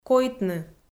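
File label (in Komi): "койтны", Komi, female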